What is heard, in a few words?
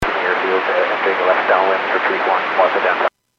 Airports 122.7, Radio; KSTE